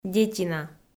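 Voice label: "детина", Komi, female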